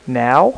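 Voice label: "now", male, voice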